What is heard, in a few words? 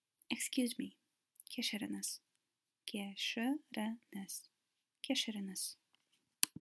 Kazakh Excuse me